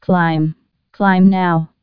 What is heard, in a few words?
climb now, tcas, female; fgdata